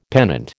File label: "pennant", American